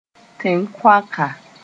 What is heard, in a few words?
"tẽn-kõaka", moore